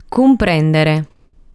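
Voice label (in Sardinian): "Cumprèndere", Sardinian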